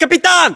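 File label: rank captain, battlechatter, Russian; localized english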